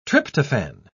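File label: "tryptophane", British English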